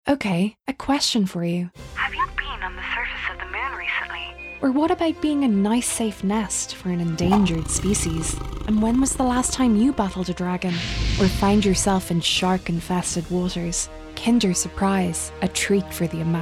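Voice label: voice over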